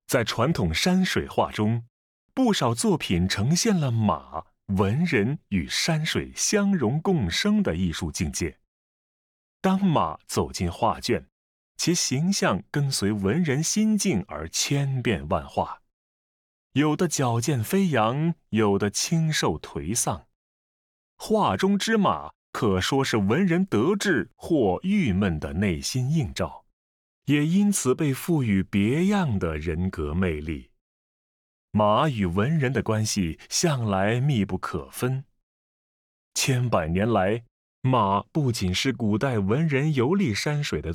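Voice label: Mandarin, audio guide; 山水图; 文嘉 （1501–1583年）; 明，16世纪中晚期; Heavenly Horse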